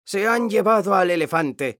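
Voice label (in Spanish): "se han llevado", Spanish; mekanta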